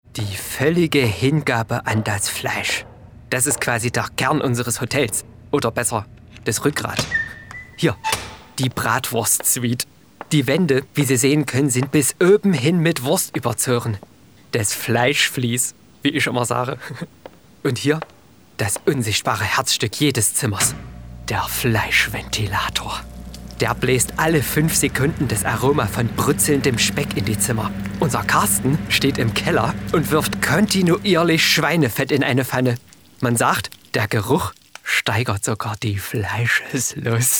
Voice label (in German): Fleischhotel akzent Hallisch